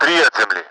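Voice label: three ground, Russian; Mi-8MT; DCS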